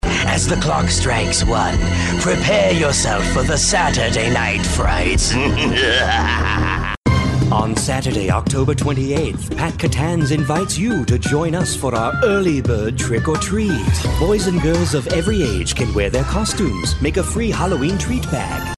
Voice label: Scarey